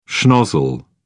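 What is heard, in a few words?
"schnozzle", British English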